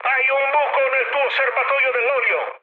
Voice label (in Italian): damaged oil, mood high, speech it; War Thunder